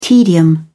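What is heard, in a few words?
"tedium", British English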